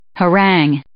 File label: "harangue", English